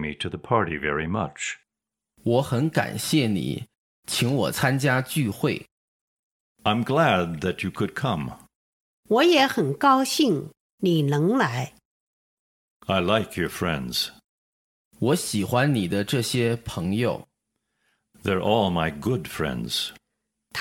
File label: Dialog